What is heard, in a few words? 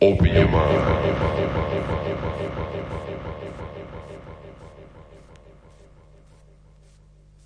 open your mind echo, male spoken word; MALE MISC